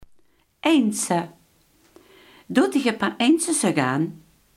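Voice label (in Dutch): identiek, Limburgse gesproken woordenboeken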